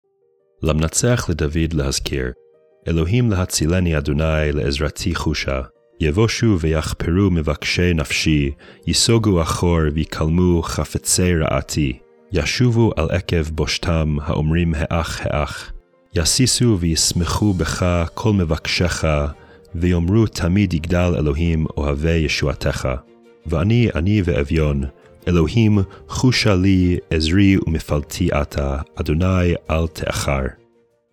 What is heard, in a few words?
poetry and prayer psalm hebrew; Meir Soloveichik